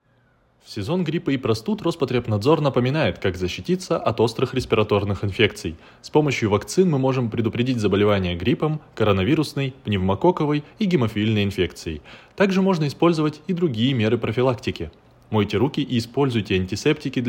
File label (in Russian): Аудиоролик профилактика ОРВИ